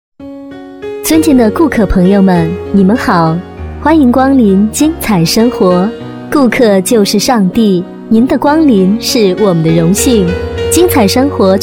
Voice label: 广播提示 店铺欢迎广播

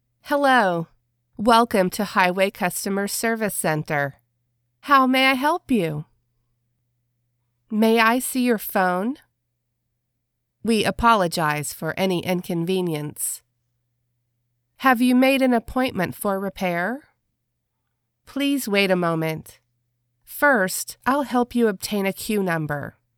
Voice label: 外籍女英 外语 美式英语 客服语音 成熟

